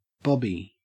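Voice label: "bobby", English, Australian accent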